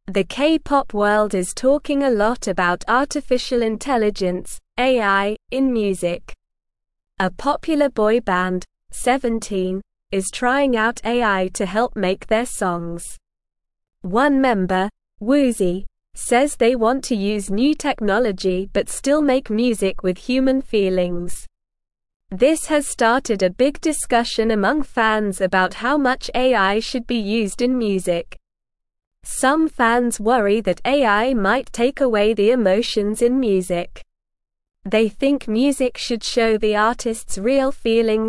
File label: English Lower Intermediate SLOW Reading; English Newsroom